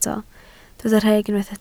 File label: "rheg", Welsh